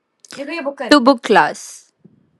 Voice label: voice message